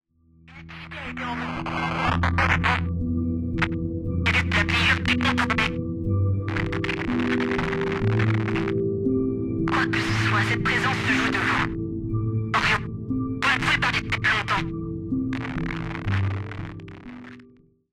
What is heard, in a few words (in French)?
Voix Radio; Babel